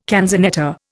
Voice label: "canzonetta", Indian